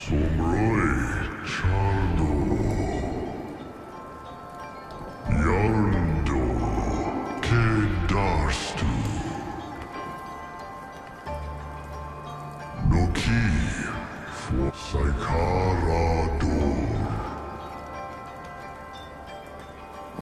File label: voiceover; Ori and the Blind Forest; gamesoundopedia